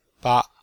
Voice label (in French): "ba5", chinois; Cours de Chinois